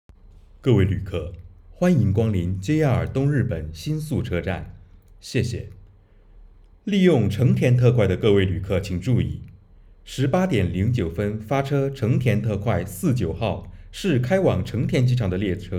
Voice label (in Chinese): 新宿 车站 站内 广播 中文